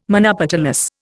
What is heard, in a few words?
"monopetalous", Indian